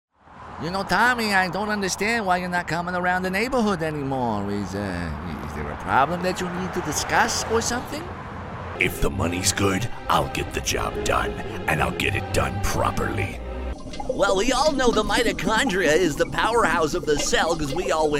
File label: Character